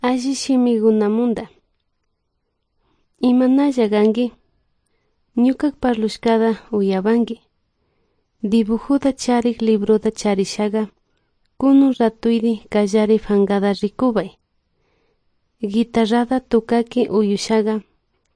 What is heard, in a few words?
good news quichua de salasaca